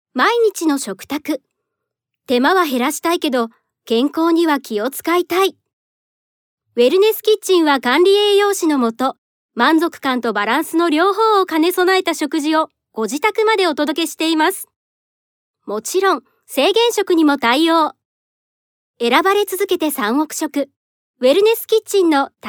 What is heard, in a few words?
narration